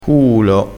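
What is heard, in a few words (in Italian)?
"cuulo", dialettale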